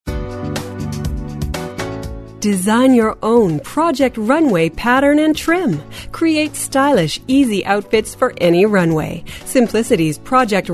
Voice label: On Hold